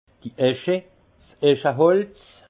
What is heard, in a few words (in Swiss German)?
"esche", alsace